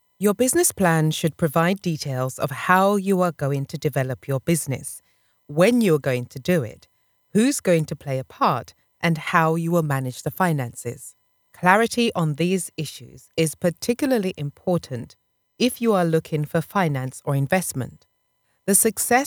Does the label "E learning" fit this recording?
Yes